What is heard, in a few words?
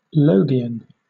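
"logion", British English; pronunciations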